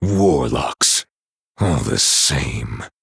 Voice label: Male Night Elf TRIGGER; SKULL OF GULDAN